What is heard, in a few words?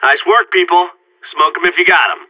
radio bot end clean, vo agents seal; Source Model Packs; Counter Strike Model Pack; CS2